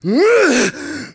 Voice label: Angry; Ghirahim; zelda sounds; Skyward Sword